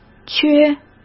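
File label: "缺", Mandarin Chinese; chinese-flashcards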